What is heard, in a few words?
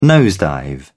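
"nosedive", British English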